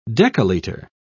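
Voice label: "decaliter", English, British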